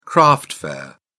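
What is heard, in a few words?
"craft fair", British English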